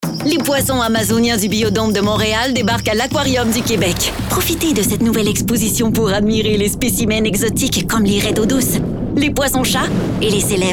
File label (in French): amicale avec sourire énergique, Enthousiaste - Souriante - Québécois naturel; Aquarium du Québec